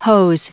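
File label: "hose", US English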